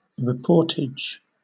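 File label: "reportage", British English; pronunciations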